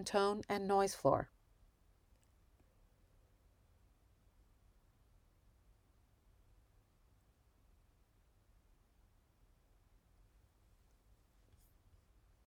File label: Home Studio Raw Sound